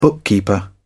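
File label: "bookkeeper", British English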